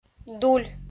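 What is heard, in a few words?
"дуль", Komi, female; kpv audio dict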